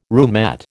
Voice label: "roomette", American